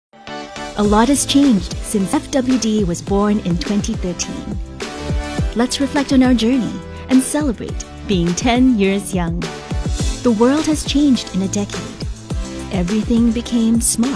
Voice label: Brand Social Media Reel VO, Happy, Proud, Female VO; 40s Social Reel - Happy, Proud